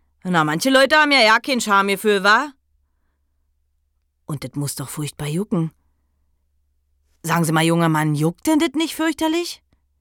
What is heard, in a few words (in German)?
Berlinerisch